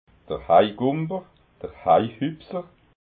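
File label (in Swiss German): "heigumper", Alsatian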